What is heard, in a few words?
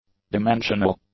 "dimensional", english; adjectives